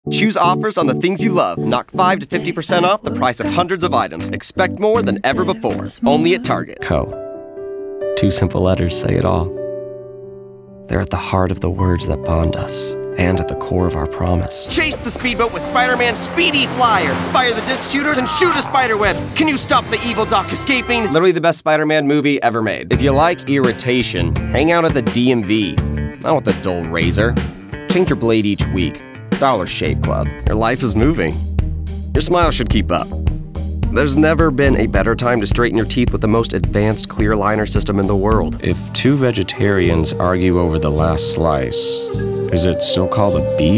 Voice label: Commercial